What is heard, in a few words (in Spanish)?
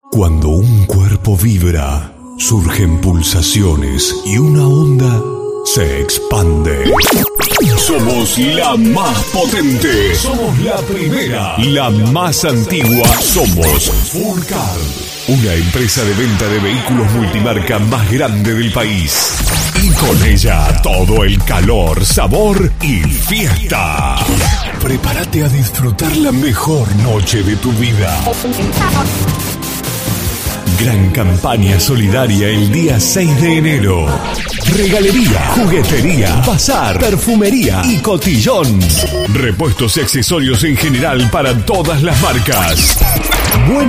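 Demo Comercial, locutora argentina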